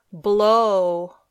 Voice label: "blow", English